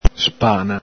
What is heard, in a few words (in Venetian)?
"spana", Veneto